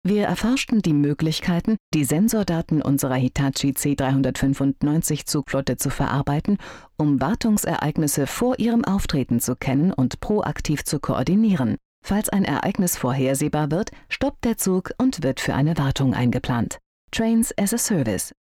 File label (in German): imagefilm; hitachi